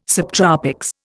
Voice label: "subtropics", Indian

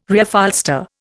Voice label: "Reupholster", Indian

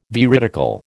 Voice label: "veridical", American

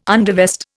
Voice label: "undervest", Indian

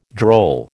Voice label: "droll", American

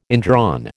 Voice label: "indrawn", American

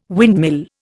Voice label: "windmill", Indian

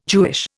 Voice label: "Jewish", Indian

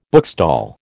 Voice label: "bookstall", American

